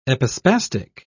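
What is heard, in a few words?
"epispastic", British English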